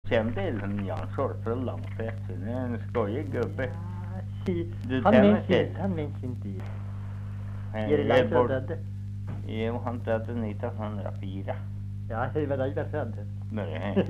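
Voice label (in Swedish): Norsjö Västerbotten, dialekter; Folkminnen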